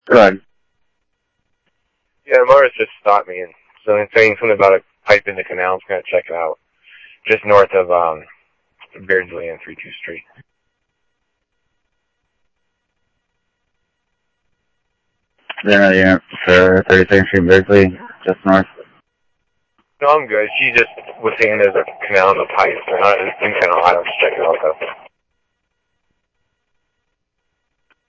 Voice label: Phoenix PD, scanner audio